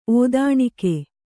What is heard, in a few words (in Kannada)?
"ಔದನಿಕ", Kannada